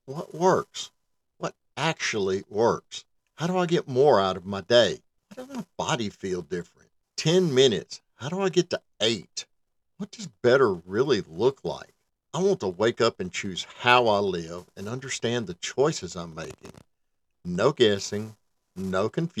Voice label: Voice Over Casting - Supplement Brand Internal Video Voice Sample